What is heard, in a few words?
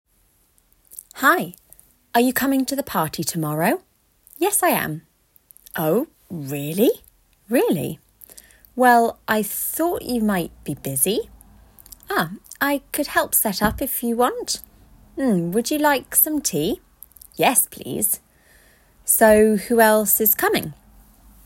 Intonation in Dialogue